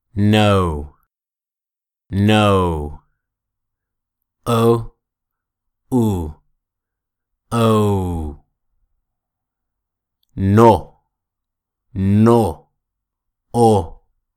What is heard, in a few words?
O diphthong example